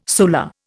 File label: "solar", Indian